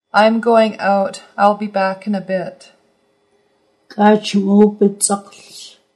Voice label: I am going out, Nuu-chah-nulth

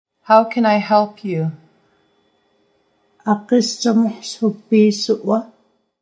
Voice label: How can I help you, Nuu-chah-nulth

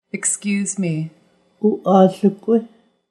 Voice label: Excuse me, Nuu-chah-nulth

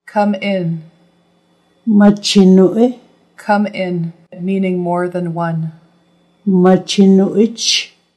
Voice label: Come in, Nuu-chah-nulth language phrases

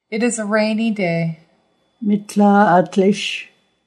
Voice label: It is a rainy day, Nuu-chah-nulth

